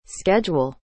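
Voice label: "schedule", English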